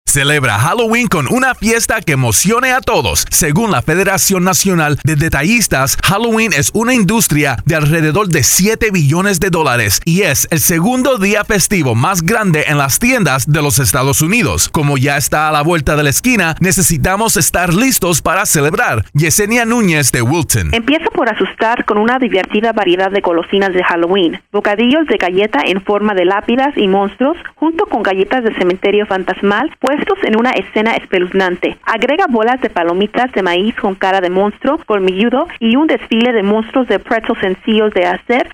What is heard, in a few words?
Halloween Spanish